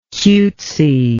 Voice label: "cutesiness", english

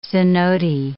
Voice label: "cenote", English

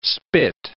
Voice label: "spit", english

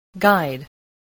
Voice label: "guide", spelling

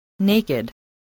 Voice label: "naked", voice

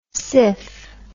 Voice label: "siff", words

